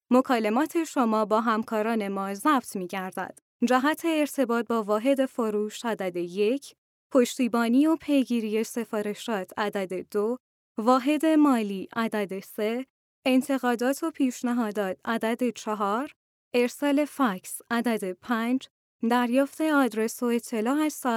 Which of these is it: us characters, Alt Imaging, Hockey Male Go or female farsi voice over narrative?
female farsi voice over narrative